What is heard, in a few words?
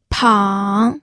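"pang", Mandarin Chinese, third tone; pinyin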